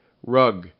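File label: "rug", English; RhymeTest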